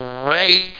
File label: "great", Robots; Same Game